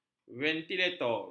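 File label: "ventilator", Malay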